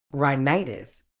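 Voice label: "rhinitis", medical English, British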